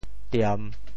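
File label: "tiem3", Teochew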